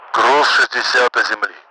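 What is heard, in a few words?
sixty to ground, Russian; Mi-8MT; DCS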